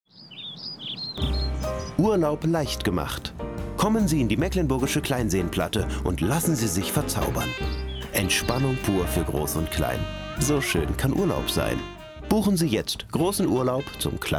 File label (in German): Werbung